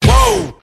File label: "woah", Voice